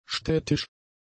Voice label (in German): "städtisch", German; adjectives